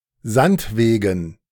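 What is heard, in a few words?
"Sandwegen", German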